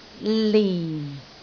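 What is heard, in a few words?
"lin4", Cantonese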